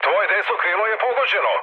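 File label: Serbian right wing mood low, speech; War Thunder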